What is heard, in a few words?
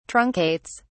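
"truncates", British English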